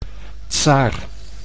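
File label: "tsaar", Dutch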